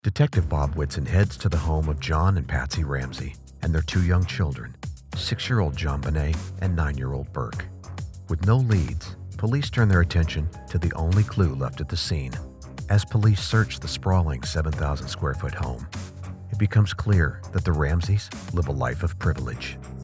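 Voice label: Documentary read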